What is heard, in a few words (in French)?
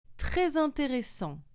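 tres interessant, French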